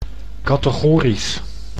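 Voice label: "categorisch", Dutch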